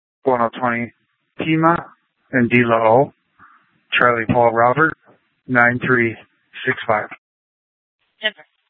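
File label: Police Department North Dispatch, scanner; Regional Wireless Cooperative (RWC) - Simulcast H- Scottsdale; Scottsdale PD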